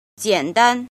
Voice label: "jin", Chinese